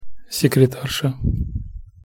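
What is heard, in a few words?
"sekretarsa", Russian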